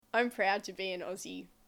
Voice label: Australian English, I'm proud to be an Aussie.

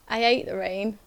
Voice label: Yorkshire, accents of english

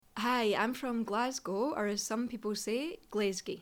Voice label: Scots, accents of english

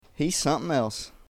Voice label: Southern American English, He’s something else.; He is incredible.

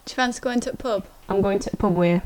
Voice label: "pub", Yorkshire

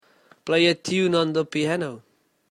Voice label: play a tune on the piano, South African English

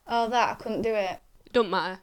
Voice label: Yorkshire, accents of english

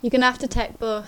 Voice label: "tek", Yorkshire

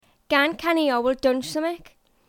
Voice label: Geordie, accents of english

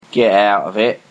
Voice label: "Get out of it", Cockney; accents of english

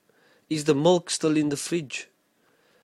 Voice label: milk still in the fridge, South African English

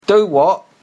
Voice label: Cockney Do what, accents of english

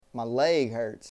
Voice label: Southern American English, My leg hurt.

